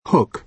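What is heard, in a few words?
"hook", British English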